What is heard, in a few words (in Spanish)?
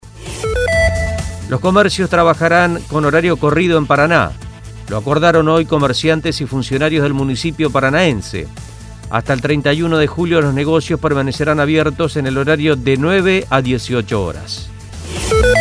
informativo; comercios horario corrido